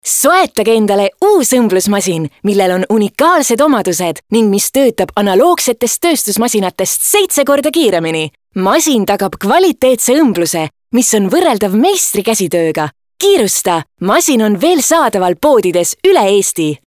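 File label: Estonian female Positive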